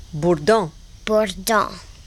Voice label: cajun french